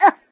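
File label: Ooff, Spanish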